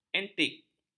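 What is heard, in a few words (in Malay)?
"antik", Malay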